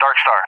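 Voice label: "Darkstar", English, USA; CallSign; DCS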